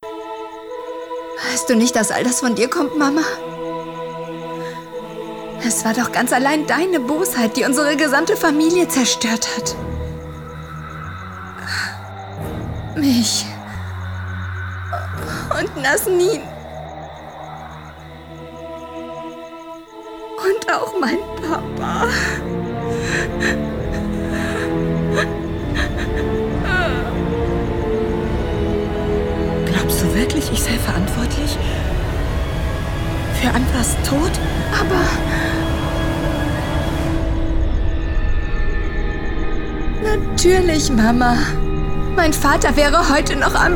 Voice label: games synchron